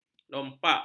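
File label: "lopak", Malay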